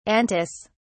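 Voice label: "antis", British English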